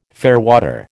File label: "fairwater", American